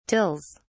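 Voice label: "tills", British English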